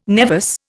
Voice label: "nevus", Indian